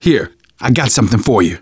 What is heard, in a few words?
alert give item, manager, survivor, voice; Left 4 Dead 2; left4dead2 dlc3